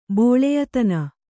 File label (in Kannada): "ಬೋಳೆಯತನ", Kannada